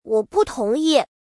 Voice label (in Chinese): 我不同意, 小孩语音